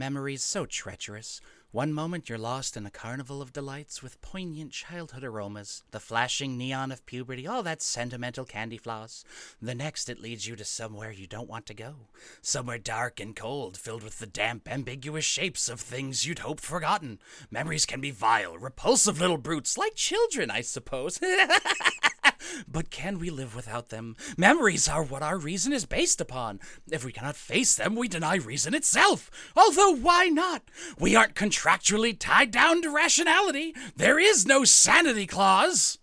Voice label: Monologue; Joker

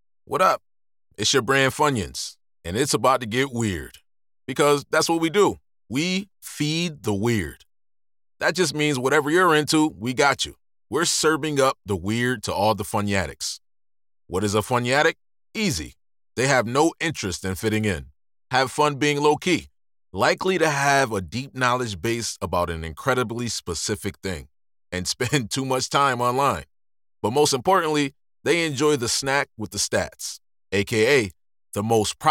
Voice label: Voice For Chips And Snacks

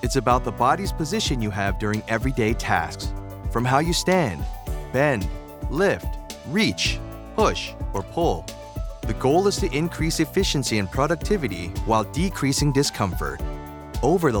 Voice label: ergonomics explainer

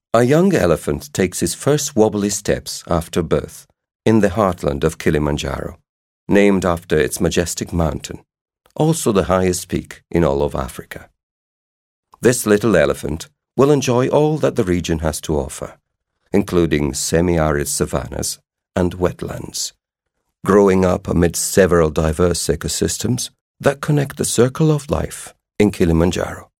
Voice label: Geographic Documentary

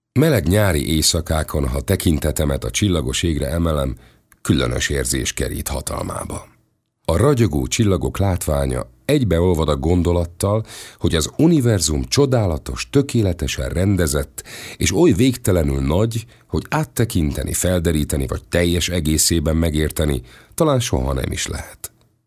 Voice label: Audiobook

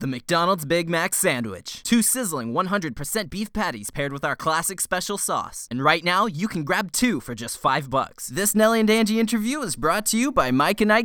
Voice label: Commercial Work Examples

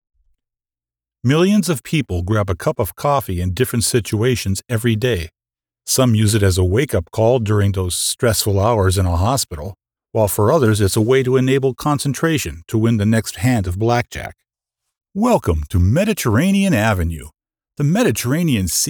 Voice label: narration

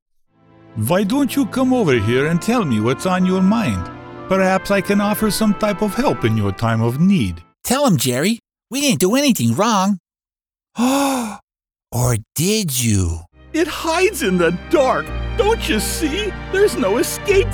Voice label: Video Game Animation

